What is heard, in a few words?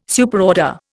"Superorder", Indian